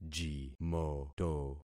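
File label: "jimoto", concatenated syllables; Affixing experiment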